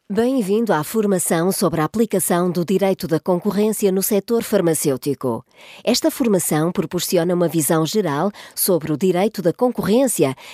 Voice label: portuguese female elearning

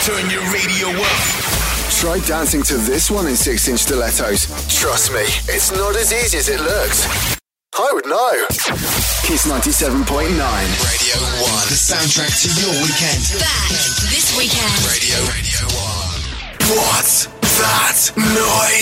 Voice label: station imaging VO reel

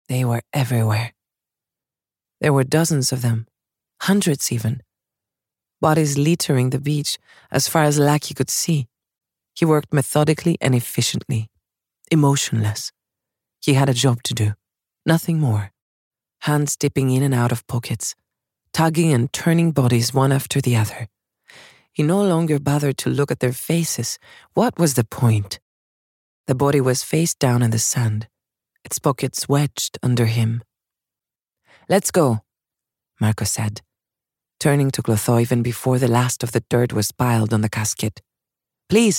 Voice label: european voiceover audiobook, Female Voice

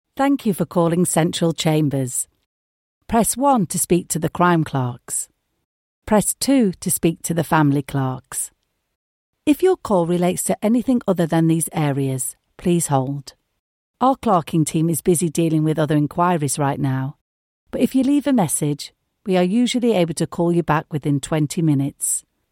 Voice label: telephone

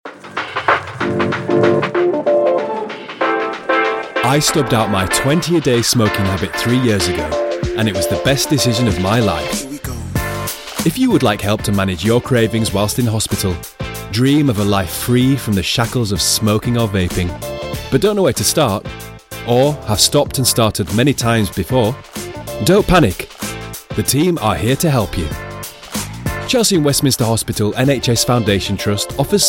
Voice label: e-learning

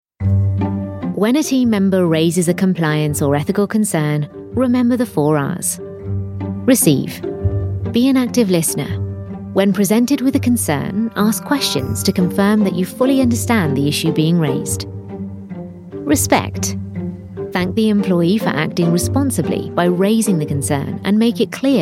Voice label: elearning

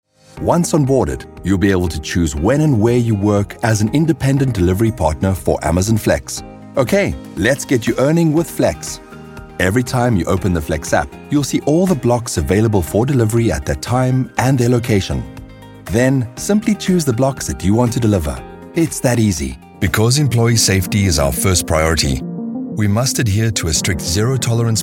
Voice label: South African voiceovers elearning

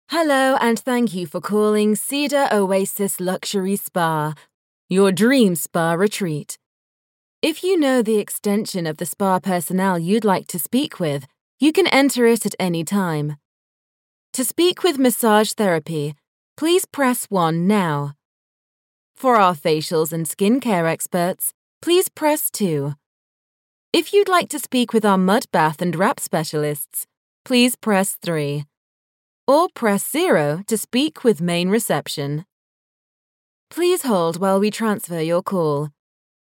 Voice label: lancashire voice telephone

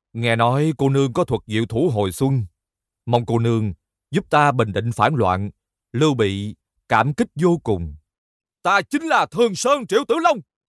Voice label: Vietnamese voice character

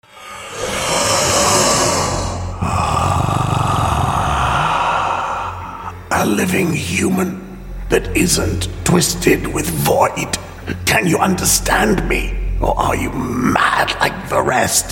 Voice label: scottish male video game

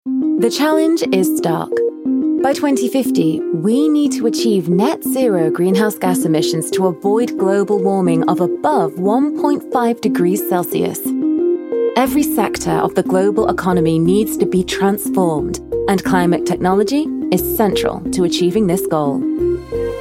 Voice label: Lancashire voice corporate

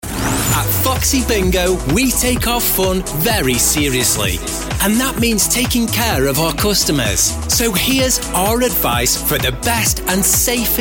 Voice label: commercial

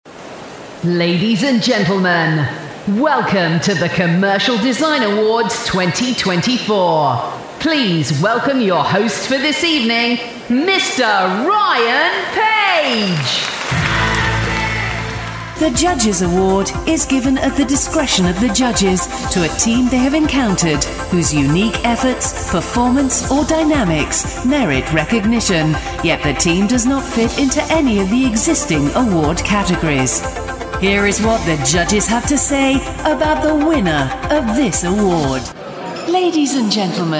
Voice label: voice of god live announcing

